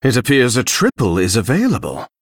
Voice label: Male Dragon Triple

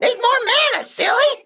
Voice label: Male Mech Emote ERROR NEED MANA

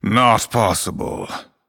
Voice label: Male Night Elf ERROR GENERIC

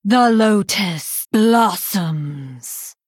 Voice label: Female Night Elf

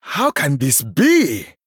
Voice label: Male Troll Wow

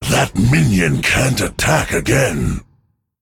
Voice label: Male Drust Emote ERROR MINION ATTACKED

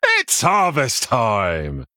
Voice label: Male Human

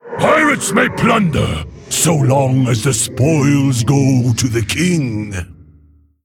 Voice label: Male Mogu PIRATE DAY

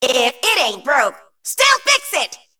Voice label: Female Mech

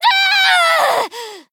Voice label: Female Night Elf Death; Aelessa

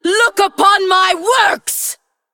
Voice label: Female Undead Hero Power; Cariel; Mission8

